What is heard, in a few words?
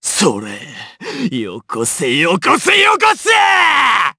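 voice, Japanese; Kibera; kingsraid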